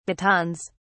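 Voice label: "batons", British English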